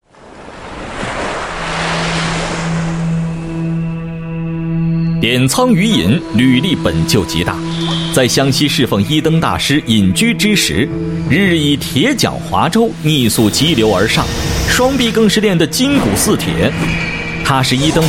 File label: Mandarin China Martial Arts Drama